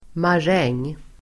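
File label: "maräng", Swedish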